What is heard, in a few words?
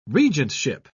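"regentship", British English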